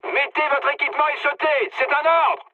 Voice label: bailout mood med, speech fr; War Thunder

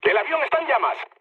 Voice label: on fire, mood med, speech; War Thunder

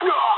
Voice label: jp damaged pilot, speech jp

